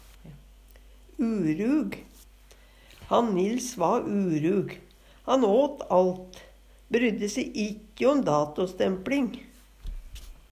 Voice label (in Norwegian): urug, Numedalsmål; Adjektiv